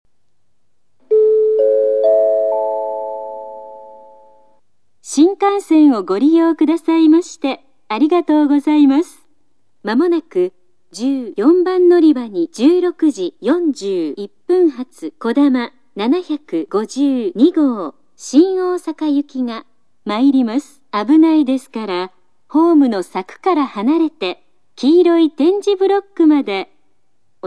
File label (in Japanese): 接近放送（こだま752号・新大阪）; 小倉駅; 14 山陽新幹線／広島・新大阪・東京 方面